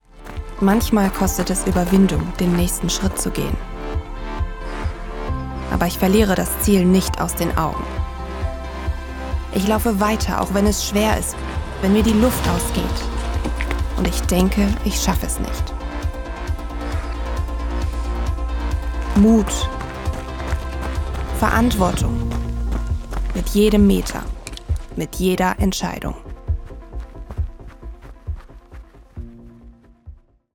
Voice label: werbung nachdenklich

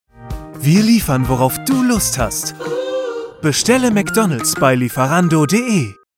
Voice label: werbung motivierend; lieferando

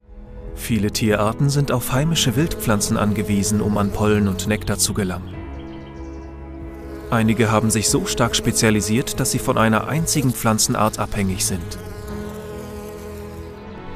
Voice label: doku informativ

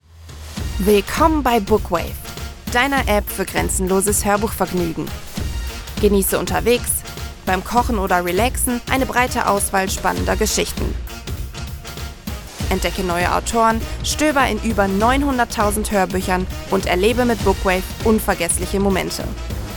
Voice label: werbung selbstbewusst

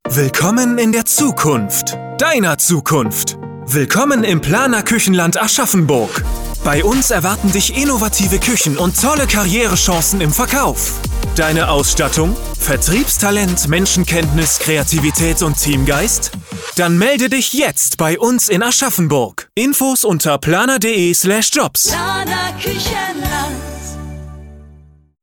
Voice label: radiowerbung recruiting begeisternd; planakuechen

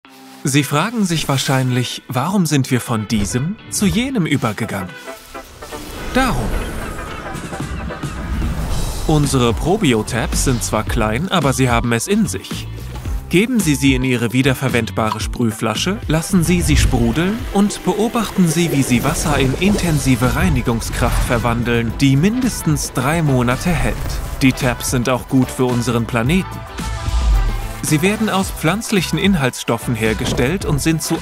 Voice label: erklaerfilm putzmittel freundlich werblich

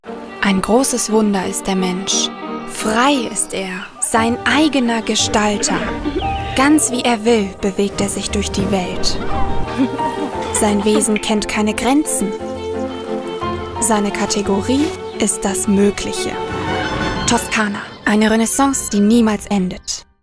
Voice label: werbung staunend

